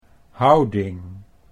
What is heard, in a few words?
"houding", Dutch